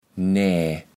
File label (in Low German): "nää", kaevels platt